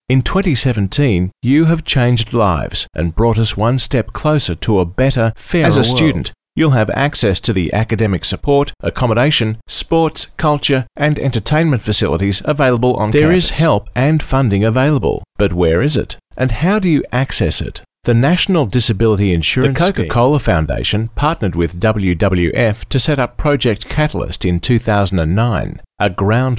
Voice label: Natural